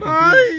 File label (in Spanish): ay peruano